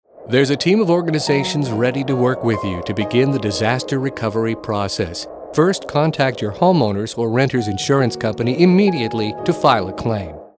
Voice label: caring; Disaster Recovery

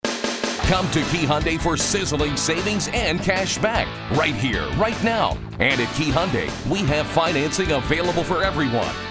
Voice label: hard sell

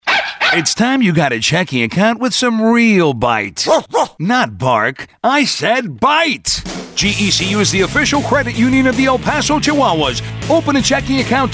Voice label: upbeat humorous

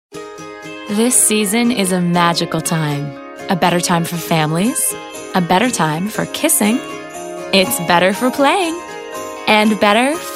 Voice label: warm and fuzzy

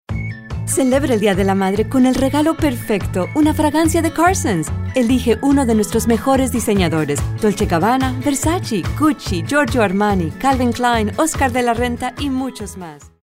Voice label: perky

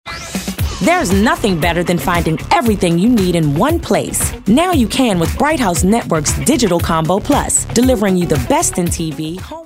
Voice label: high energy

